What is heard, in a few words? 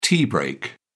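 "tea break", British English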